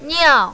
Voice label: "niao4", mandarin, female